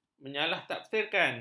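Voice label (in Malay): "menyalahtafsirkan", Malay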